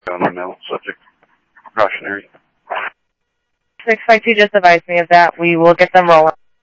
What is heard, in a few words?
Sheriff, scanner audio